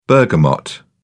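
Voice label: "bergamot", English, British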